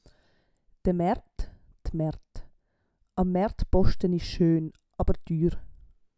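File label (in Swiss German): "de Maert", Swiss German; Wortschatz Kapitel 8; Kapitel 8 Zusatzwortschatz 2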